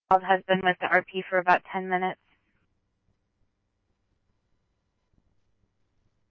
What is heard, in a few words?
Chandler Police, scanner; CPD-Ptrl B; RWC; C-Chandler